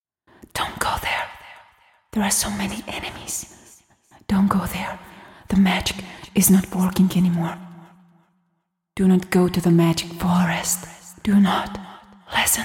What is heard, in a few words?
magic voice example for video games